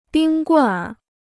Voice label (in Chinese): "冰棍儿", Chinese